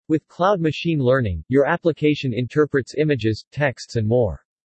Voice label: en-US Standard D